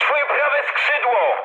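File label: right wing mood high, speech Polish; War Thunder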